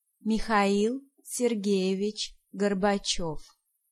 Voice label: "Gorby", Pronunciation